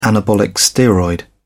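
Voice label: "anabolic steroid", British English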